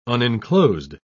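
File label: "unenclosed", British English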